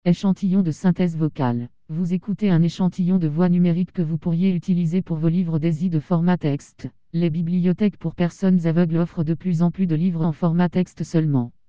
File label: Eurofrench Female